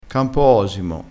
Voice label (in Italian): "campoosimo", crespinese